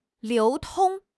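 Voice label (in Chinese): "流通", Chinese; HSK6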